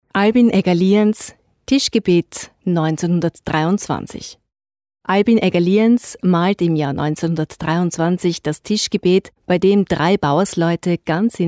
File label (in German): Audioguide D